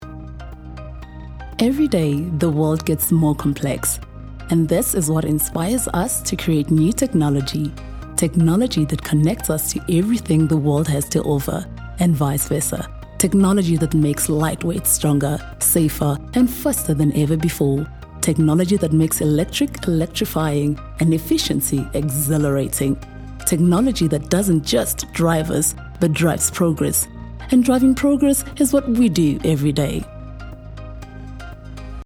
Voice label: ENG, voice